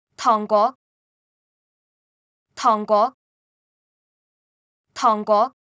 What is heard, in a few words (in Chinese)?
糖果, Cantonese